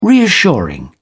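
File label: "reassuring", British English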